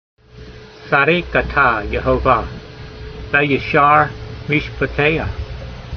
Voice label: voice, hebrew language; Psalm 119:137; tsadeek ata yehovah, ve'yashar meeshpateyha